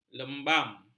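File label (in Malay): "lembam", Malay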